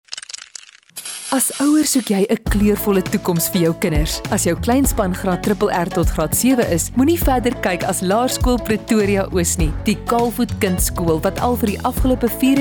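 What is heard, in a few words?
RADIO ADVERTISEMENTS; laerskool pretoria oos; VOICE-OVER WORK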